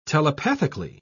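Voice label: "telepathically", British English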